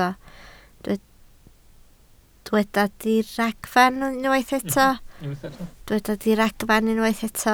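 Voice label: "diragfarn" Welsh, spoken word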